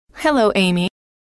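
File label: "hello", female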